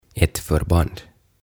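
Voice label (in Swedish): "ett förband", Swedish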